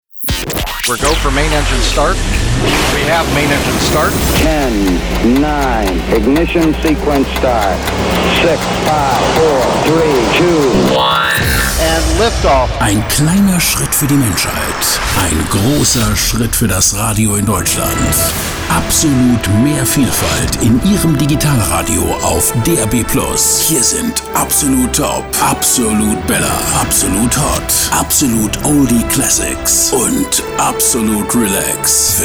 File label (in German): Sendestart Countdown; Absolut Top